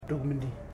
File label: "four", Ipili